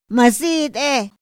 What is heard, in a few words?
"ma zit´ë", Otomí; Días de la semana; INPI; INALI